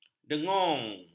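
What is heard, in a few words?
"dengung", Malay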